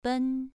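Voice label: "ben" Mandarin, second tone, pronunciation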